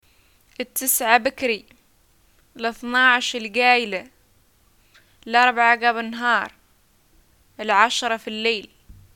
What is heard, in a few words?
time, Arabic